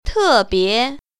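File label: "te4bie2", Mandarin Chinese Tone Pair Drills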